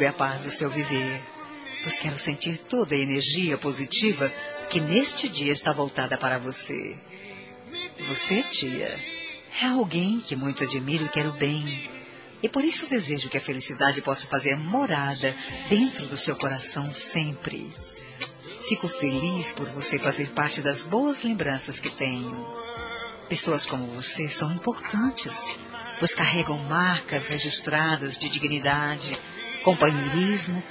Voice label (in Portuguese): Aniversário Tia F, Telemensagens; Aniversários; Aniv Familiar